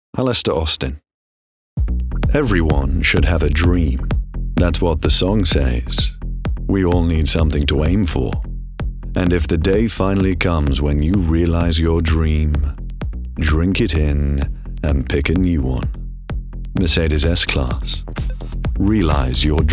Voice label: languages male british

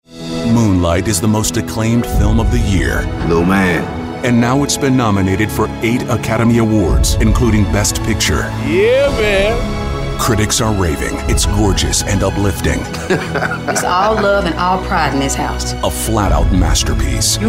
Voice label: trailers male

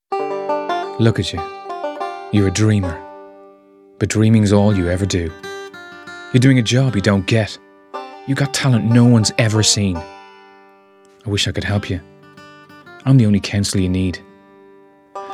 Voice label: languages men irish

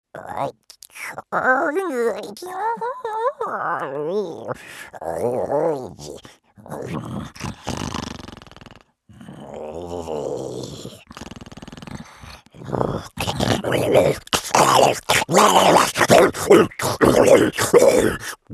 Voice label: animation creatures, men; voices men

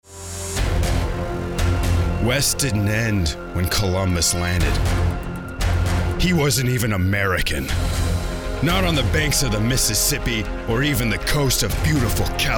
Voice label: commercial male

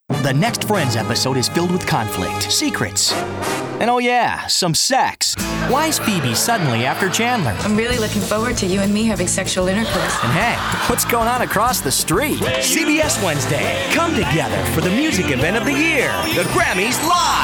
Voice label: promo, men

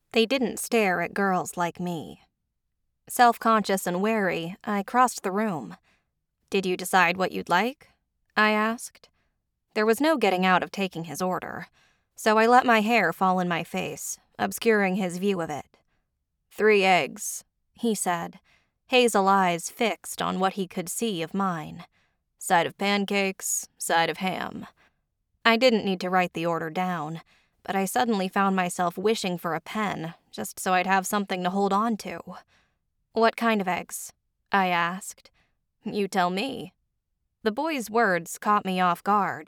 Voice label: audiobooks women ya thriller

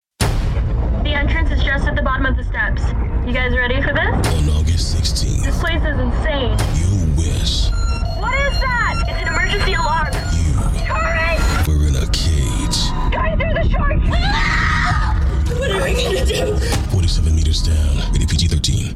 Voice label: trailer men

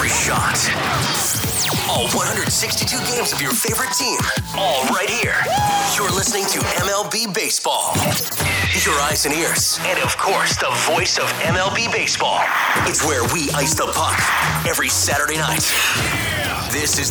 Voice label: radio sports, radio imaging

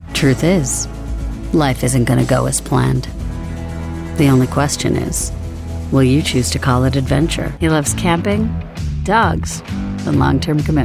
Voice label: commercial women

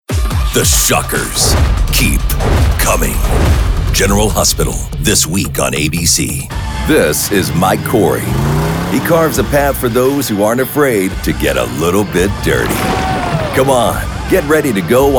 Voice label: promo men

